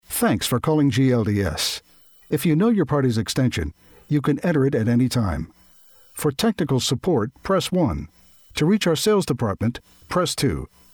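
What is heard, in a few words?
Phone Hold IVR Male, Relaxed, Convincing, Mature; Narration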